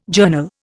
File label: "journal", Indian